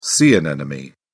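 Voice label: "sea anemone", English, British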